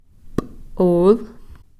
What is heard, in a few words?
"p'ol", Cow Creek; ditch